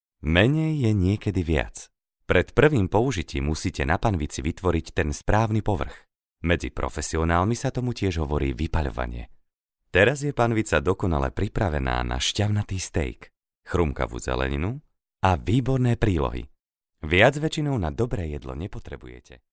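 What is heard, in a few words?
Slovak, male, narration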